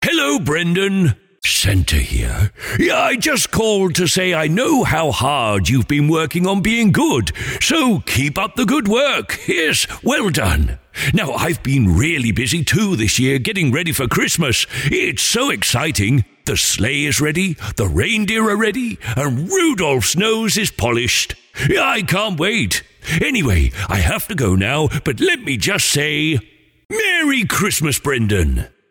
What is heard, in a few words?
Personalised Santa Message, personalised Christmas message